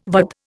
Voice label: "VAD", Indian